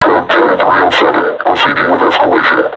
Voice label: taunt, vo combine suppressor; Half Life Alyx

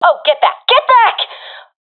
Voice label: bomb pre explosion, professional fem; vo; agents; Source Model Packs; Counter Strike Model Pack; CS2

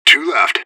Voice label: radio bot kill count; fbihrt

